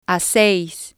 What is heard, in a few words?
"haceis", spanish